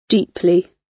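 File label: "deeply", British English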